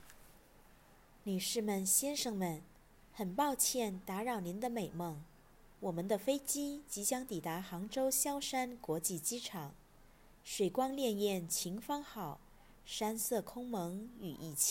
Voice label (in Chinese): 飞机播报